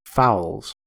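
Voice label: "fowls" US English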